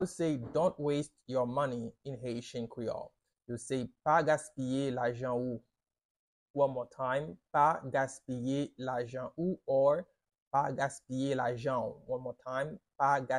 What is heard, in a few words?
Pa gaspiye lajan ou, Haitian Creole pronunciation by a Haitian